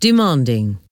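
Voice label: "demanding", English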